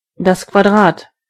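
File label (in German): "square", German; gcompris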